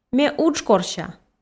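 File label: Komi, female; ме удж корся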